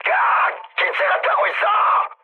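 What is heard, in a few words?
Korean on fire mood high; speech; War Thunder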